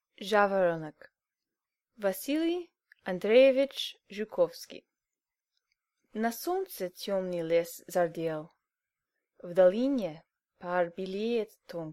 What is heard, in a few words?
Bilingual Poetry Reading; Zhavoronok